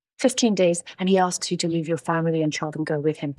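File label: Voice Acting es, female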